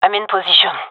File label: in position, gendarmerie fem; vo